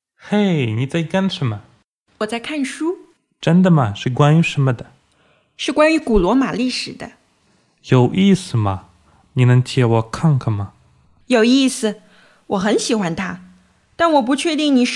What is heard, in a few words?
Dialog ch